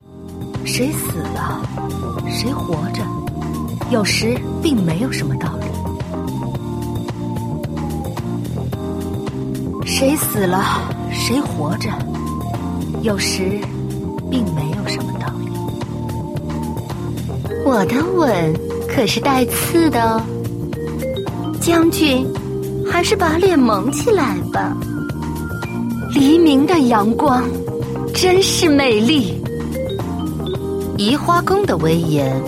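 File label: female mature woman queen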